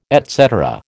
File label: "et cetera", American